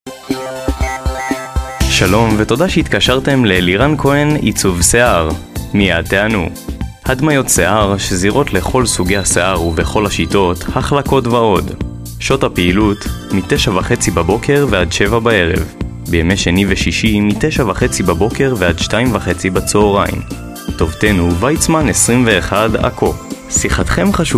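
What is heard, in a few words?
hebrew interactive voice response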